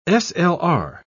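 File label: "slr", English, British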